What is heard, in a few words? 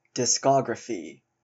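"discography", US English; pronunciations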